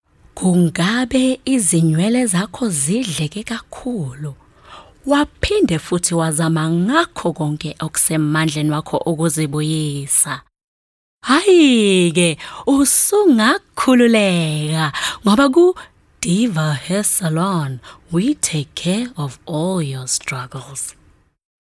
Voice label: Zulu Conversational